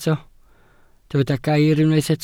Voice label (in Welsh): "gair", Welsh